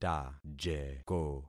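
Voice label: "tajeko", concatenated syllables; Affixing experiment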